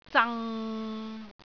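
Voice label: "zang6", Cantonese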